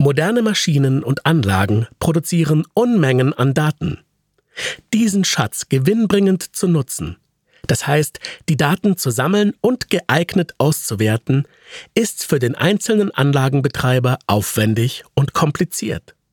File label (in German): Werbung